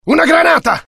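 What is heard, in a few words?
fear grenade, IT; mohaa; dialogue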